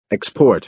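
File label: "export", American English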